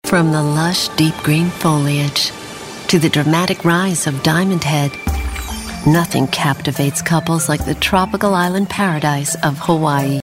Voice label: Tourism smooth mellow romantic